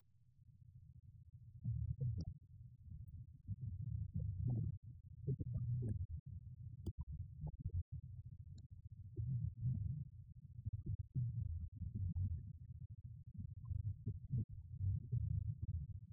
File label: radio banter; The Clifford Ball